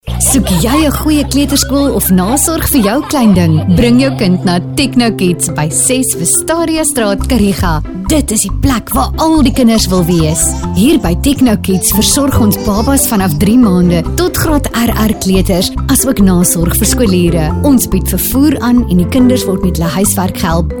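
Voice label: Afrikaans Soft Sell; South Africa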